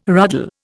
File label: "ruddle", Indian